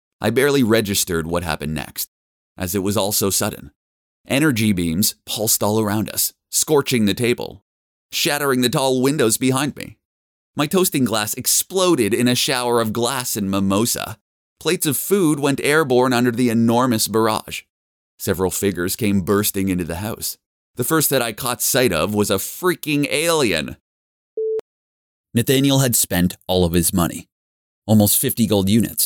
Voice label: Audiobook; Masculine Voices